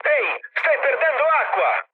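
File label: Italian damaged water mood med, speech; War Thunder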